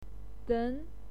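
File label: "den", Mandarin Chinese, second tone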